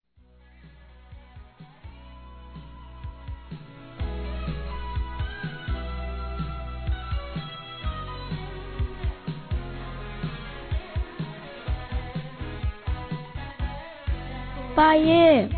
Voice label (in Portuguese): FILHO P PAI INFANTIL, Telemensagens, Dia dos Pais; Telemensagens 2017